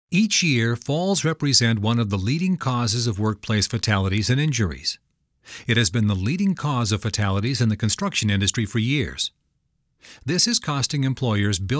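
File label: web english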